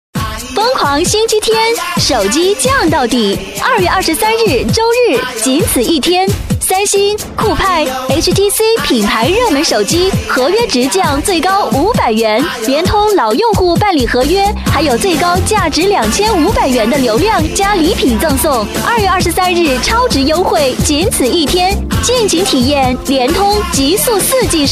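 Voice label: chinese mandarin female commercial; voice demos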